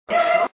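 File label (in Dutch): "kut", Dutch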